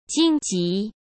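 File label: "荆棘", Mandarin Chinese; chinese flashcards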